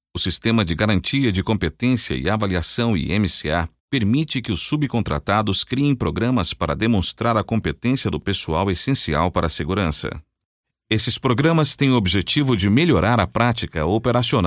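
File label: Brazilian Portuguese corporate; GoLocalise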